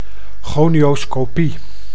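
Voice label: "gonioscopie", Dutch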